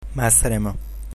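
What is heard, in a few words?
goodbye, Egyptian Arabic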